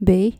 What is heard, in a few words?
"bij", Dutch